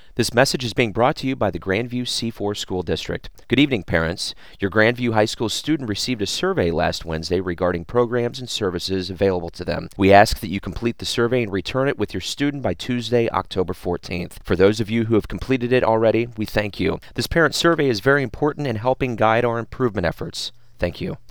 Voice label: survey alert, voiceovers